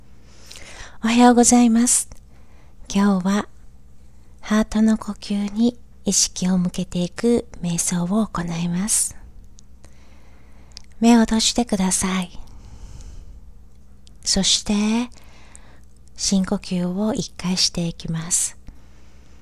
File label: meditation; HeartBless